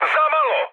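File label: Serbian bomb failure mood high, speech; War Thunder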